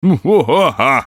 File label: VOICE COMICAL; Radio Voice -Evil Laugh